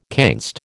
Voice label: "canst", American

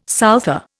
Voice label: "souther", Indian

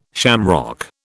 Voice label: "shamrock", British

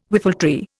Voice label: "whiffletree", Indian

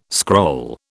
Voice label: "scroll", British

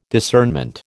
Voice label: "discernment", American

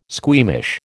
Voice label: "squeamish", American

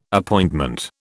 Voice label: "Appointment", British

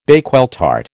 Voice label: "Bakewell tart", American

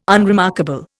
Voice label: "unremarkable", Indian

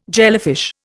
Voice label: "jellyfish", Indian

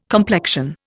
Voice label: "complexion", Indian

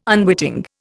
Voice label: "unwitting", Indian

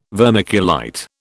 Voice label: "vermiculite", British

